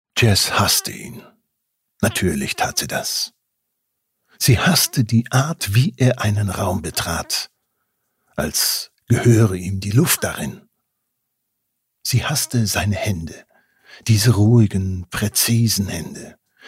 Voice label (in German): Hoerprobe, sprecher